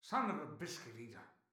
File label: pirate voice; netent